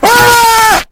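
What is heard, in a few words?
sniper pain critical death; voice packs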